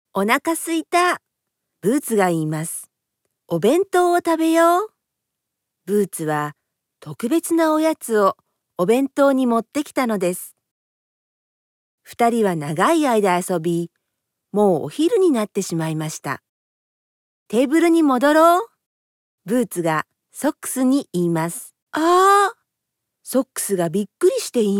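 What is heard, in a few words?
Japanese kids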